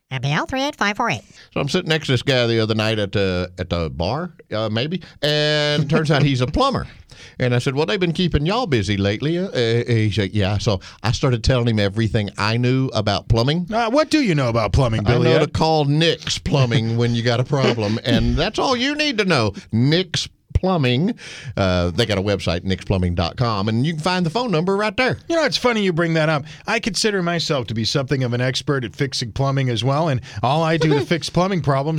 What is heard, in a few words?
RADIO AD; nicks plumbing radio ads